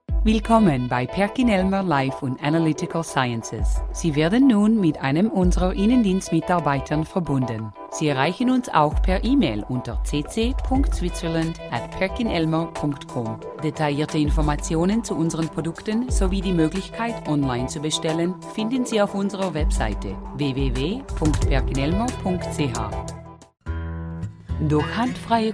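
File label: German, corporate; GoLocalise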